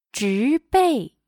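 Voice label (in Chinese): "植被", Chinese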